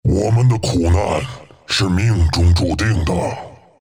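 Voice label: kill, chinese; dota2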